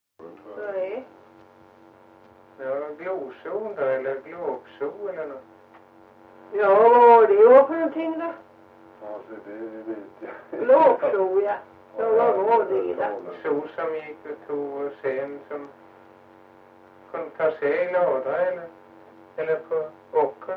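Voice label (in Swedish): Ventlinge Öland, dialekter; Folkminnen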